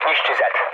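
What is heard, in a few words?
attack position, mood low, Hungarian; War Thunder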